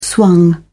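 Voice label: "swung", British English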